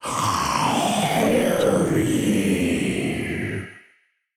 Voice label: demon saying halloween

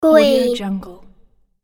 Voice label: boy says "green"

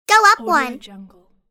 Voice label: cartoon saying go up one; applehillstudios

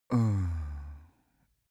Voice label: human vocal cartoon male pity; smartsoundfx

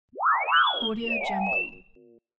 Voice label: robot talk

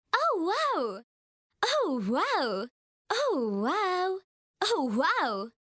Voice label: teenage girl excited pack

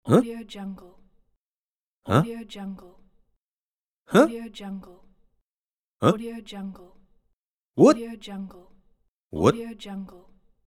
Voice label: man huh

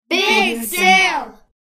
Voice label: kids say big sale

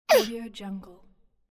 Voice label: cartoon character soft pain

